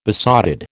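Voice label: "besotted", American English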